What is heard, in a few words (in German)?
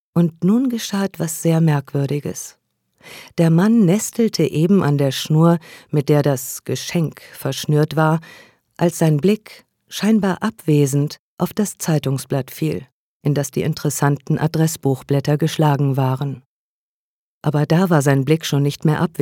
Schauspiel, Neutral